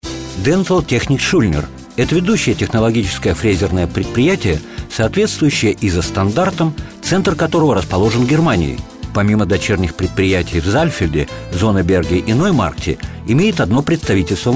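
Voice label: russian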